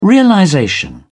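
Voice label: "realization", British English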